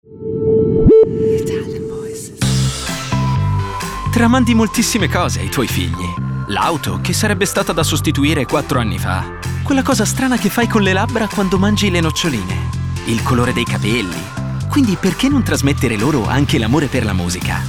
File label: ITALIAN VOICES, male voices; Spotify Italy